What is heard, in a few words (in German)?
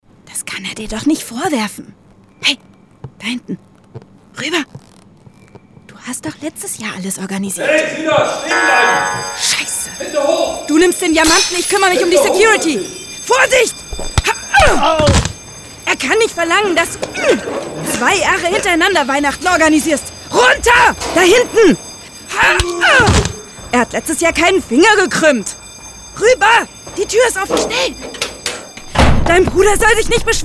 Raub Hörspiel